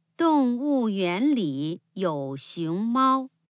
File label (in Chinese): panda original, mandarin; angelsound